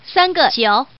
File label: Woman, tuple